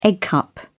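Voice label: "egg cup", British English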